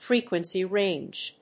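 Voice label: "FREQUENCY RANGE", English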